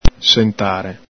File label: "sentare", Veneto